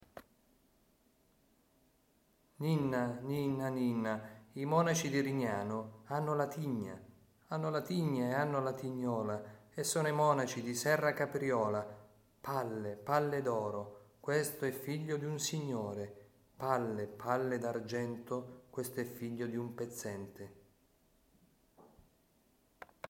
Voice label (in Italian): recitato Ninna nanne Serracapriola